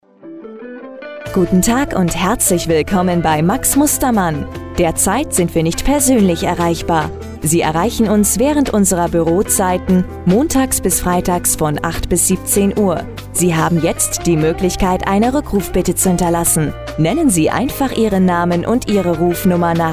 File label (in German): Telefonansage neutral